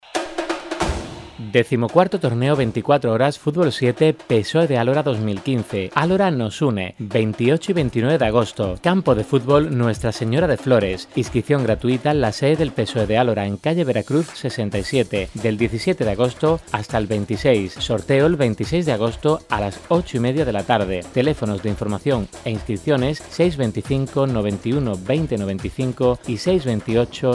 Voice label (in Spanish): ANUNCIO RADIO